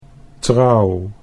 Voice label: "trouw", Dutch

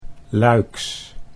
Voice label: "Luiks", Dutch

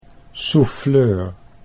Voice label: "souffleur", Dutch

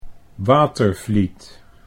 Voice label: "Watervliet", Dutch

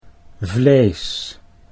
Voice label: "vlees", Dutch

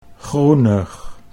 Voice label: "groenig", Dutch; 'greenish'

